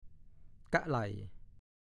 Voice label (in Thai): "ก้ะไหล่", ภาษาโคราช